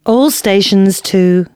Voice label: announcements, female

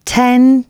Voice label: announcements female

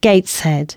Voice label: announcements, female

